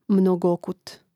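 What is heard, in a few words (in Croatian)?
"mnogokut", Croatian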